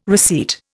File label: "receipt", Indian